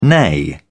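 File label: "neigh", British English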